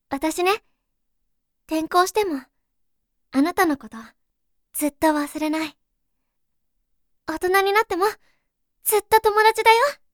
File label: girl